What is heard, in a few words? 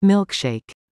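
"milkshake", English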